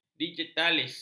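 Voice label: "digitalis", Malay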